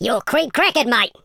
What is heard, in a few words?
"fatality", London Cabbie; speech